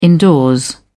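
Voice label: "indoors", British English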